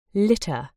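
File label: "litter", British English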